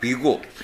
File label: "bego", minamiyamagata